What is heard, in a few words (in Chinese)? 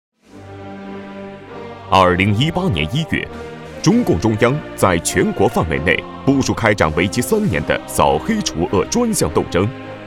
政论片; 扫黑除恶